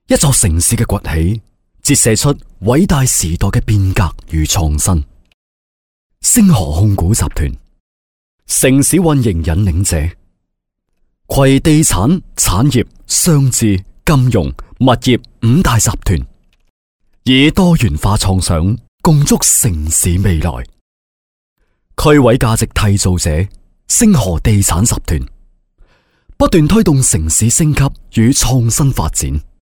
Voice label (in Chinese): 男粤 专题 企业 稳重; 粤语; 星河控股